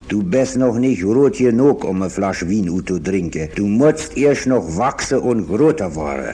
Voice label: gumbinn, Dialekte

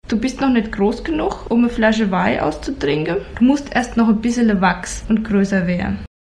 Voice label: garitz, Dialekte

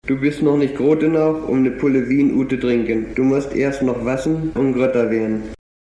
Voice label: grossbru, Dialekte

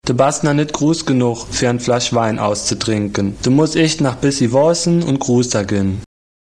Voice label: altrich, Dialekte

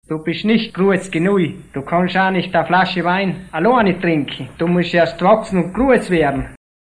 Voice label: Dialekte Pfunders

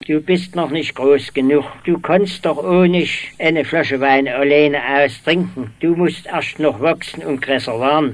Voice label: floeha, Dialekte

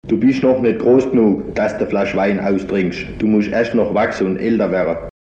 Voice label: kadurlac, Dialekte

